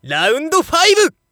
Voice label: Narration ROUND